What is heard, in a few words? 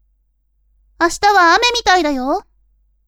women